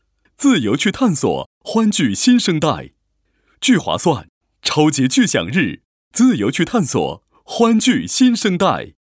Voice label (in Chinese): 男, 湖南卫视广告; 聚划算